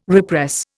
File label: "repress", Indian